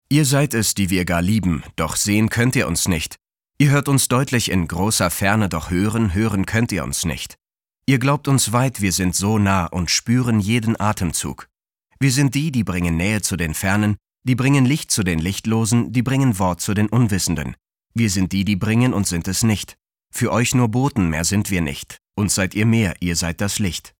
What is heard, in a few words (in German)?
sachlich, maenner; Sprecher, maenner